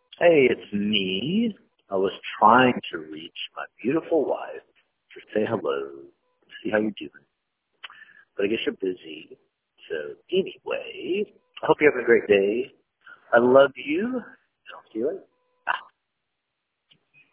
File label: voicemail